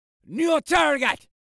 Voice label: Voices Jamaican New Target